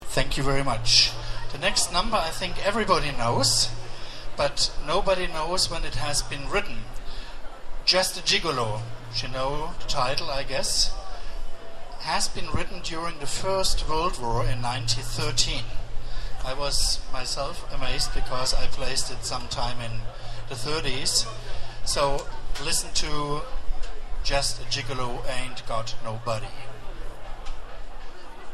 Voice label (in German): Ansage